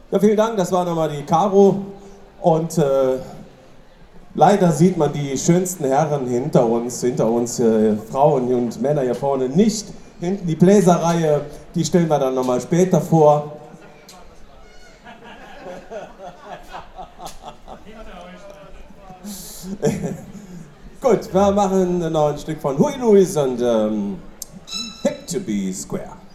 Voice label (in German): Ansage; Place D'Armes, LU-Zentrum